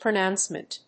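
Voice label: "pronouncement", English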